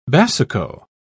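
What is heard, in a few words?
"basuco", British English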